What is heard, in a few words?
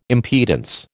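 "impedance", American English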